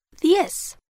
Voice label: number ten Spanish